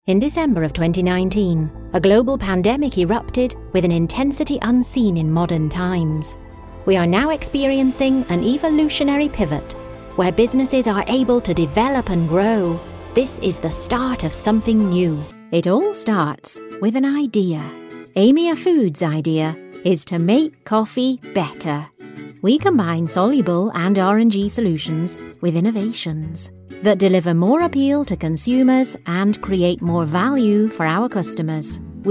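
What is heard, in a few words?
English, Corporate, Northern accent; GoLocalise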